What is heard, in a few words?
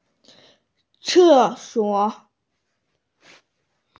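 "ce suo", Mandarin Chinese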